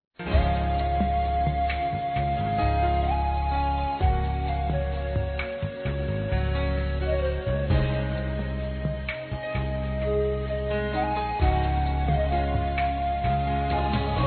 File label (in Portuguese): EVANGELICO F, Telemensagens Dia dos Pais; Telemensagens 2017